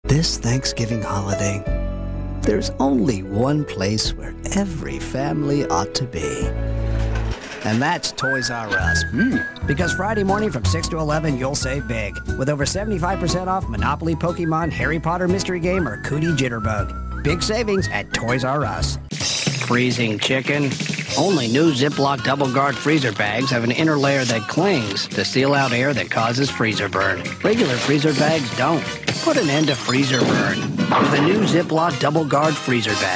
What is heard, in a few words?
voiceover commercial men; new york